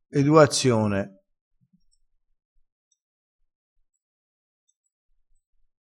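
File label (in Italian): "eduazione", vocabolario dialettale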